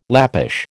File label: "Lappish", American